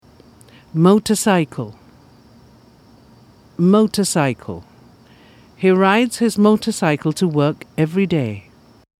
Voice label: motorcycle, pronunciation